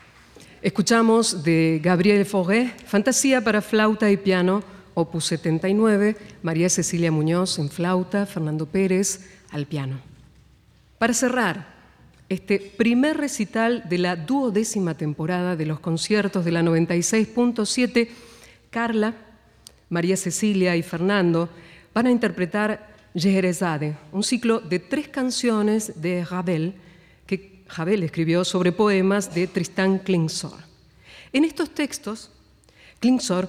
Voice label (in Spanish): locutora